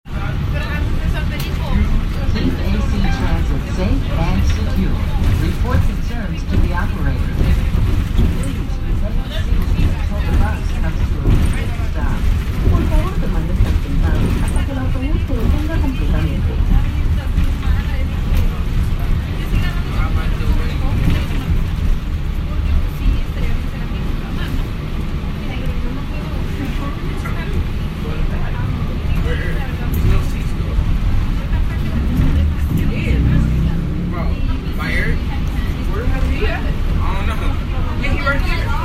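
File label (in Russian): автобуса объявлений и фоновых разговоров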